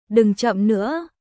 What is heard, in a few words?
"Đừng chậm nữa", ベトナム語; 急いで